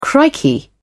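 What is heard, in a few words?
"crikey", British English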